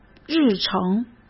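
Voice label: "日程", Mandarin Chinese; chinese-flashcards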